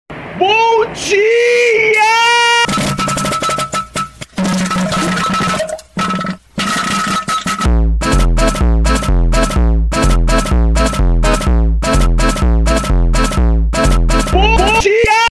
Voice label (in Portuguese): bom diaaaa funk